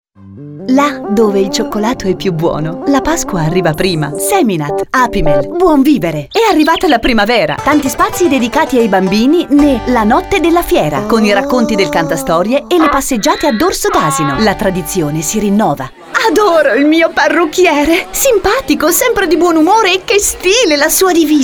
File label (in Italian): speaker femminile